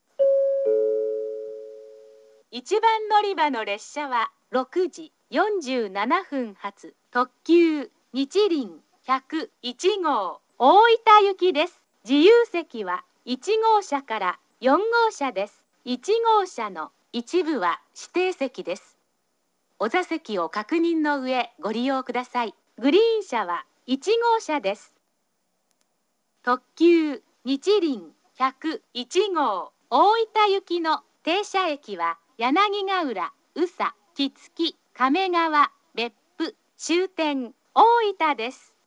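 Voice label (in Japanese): 1番のりば停車中案内放送, 中津駅; にちりん101号 大分行き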